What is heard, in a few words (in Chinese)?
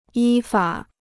"依法", Chinese